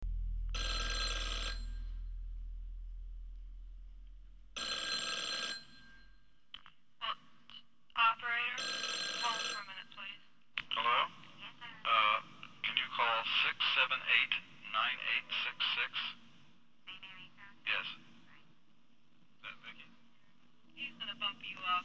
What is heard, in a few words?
Telephone Conversation